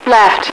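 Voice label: "left", female radio voice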